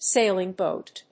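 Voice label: "sailing boat", English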